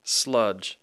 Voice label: "sludge", English